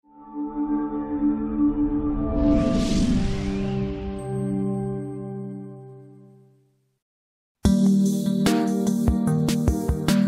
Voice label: english level 01; beginner english level 1